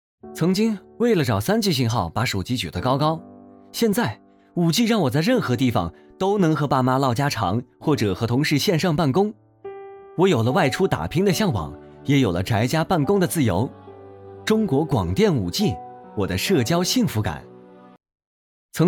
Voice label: 男 广告 抒情 年轻 5G; 中国广电5G

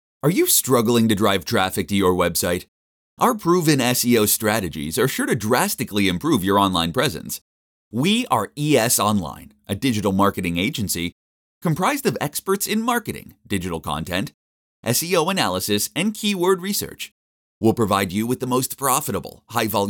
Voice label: 宣传广告; SEO优化公司

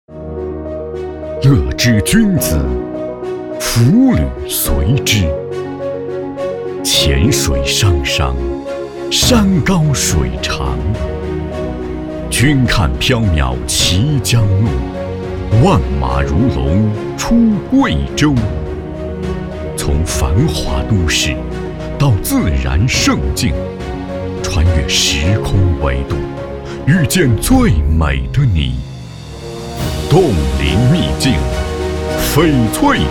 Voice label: 男 城市宣传; 诗画绥阳

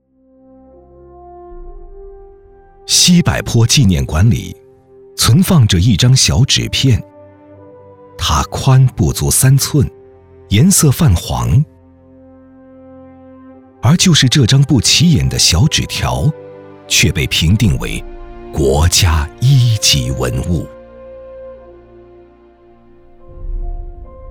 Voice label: 男 建党百年样音 稳重讲述 一张借粮条

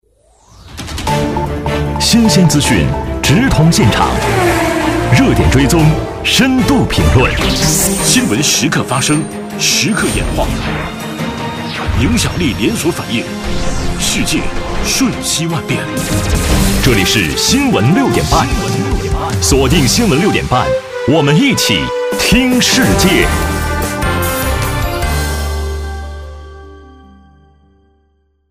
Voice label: 男 新闻风格

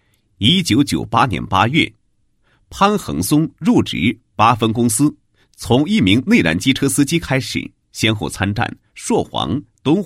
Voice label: 男27-人物; 云桂铁路项目部经理

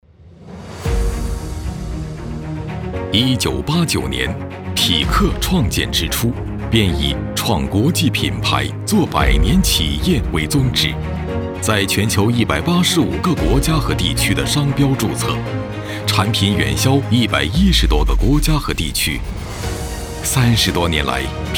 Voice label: 男 宣传片 集团类 浑厚大气 坚定自信

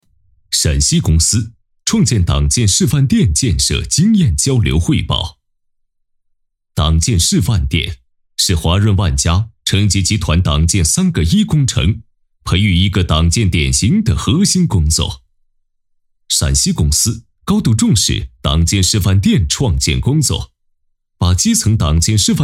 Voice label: 党建 配音; 陕西公司创建党建示范店

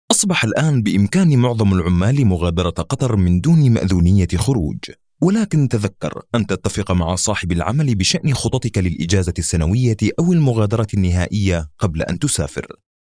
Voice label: 阿拉伯语男 干音

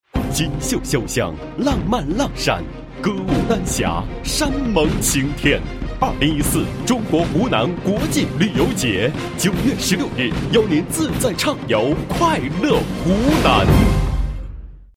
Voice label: 男 广告, 国语男声配音; 崀山广告15秒

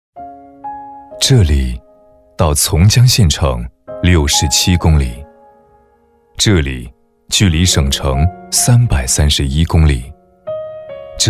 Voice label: 男 专题 低沉 感人; 第725位村民

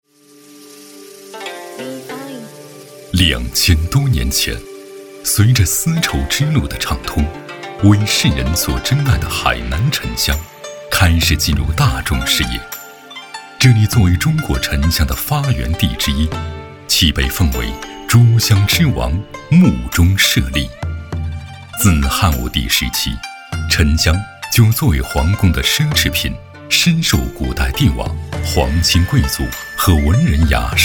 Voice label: 男 纪录 人文 片 人文讲述; 水云居沉香养生庄园

